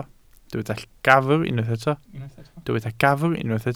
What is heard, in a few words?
"gafr", Welsh